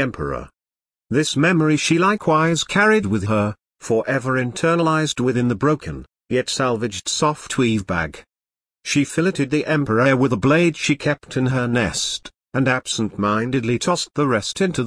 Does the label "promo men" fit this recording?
No